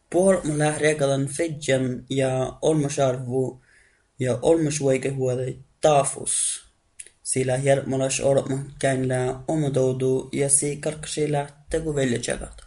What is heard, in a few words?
udhr northern sami